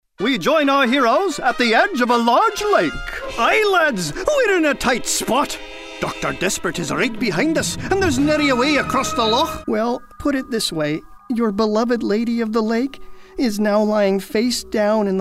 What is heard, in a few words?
Character Demo